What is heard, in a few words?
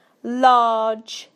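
"large", British English; PictureDictionary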